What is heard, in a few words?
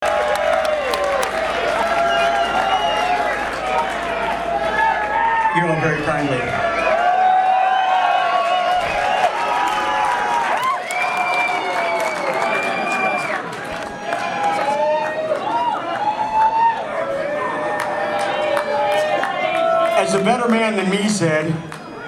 Chatter